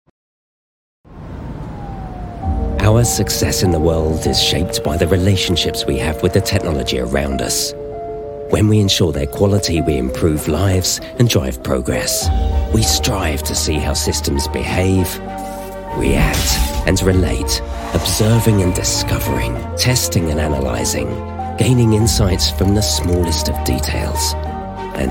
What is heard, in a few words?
Corporate; Eurofins